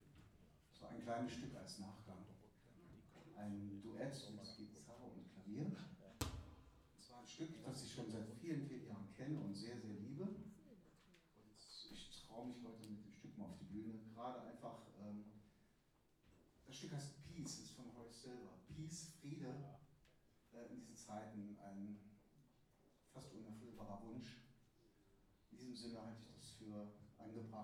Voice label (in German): Ansage; Jazz-Club Trier e.V. (JCT); 25. Jazzgipfel (Tufa, Trier)